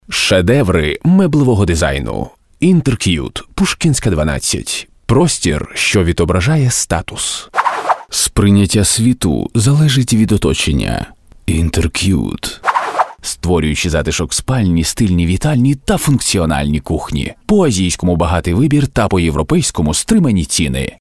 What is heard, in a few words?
UKR